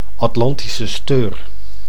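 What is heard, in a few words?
"Atlantische steur", Dutch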